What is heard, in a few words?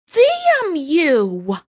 Damn You, Female; Exclamation Sound Effects